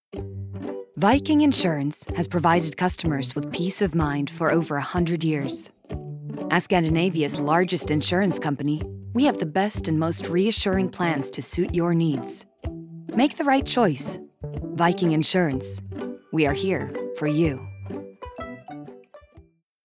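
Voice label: Norwegian advert; reklame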